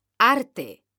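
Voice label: "ARTE", Spanish, female; mekanta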